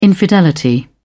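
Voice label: "infidelity", British English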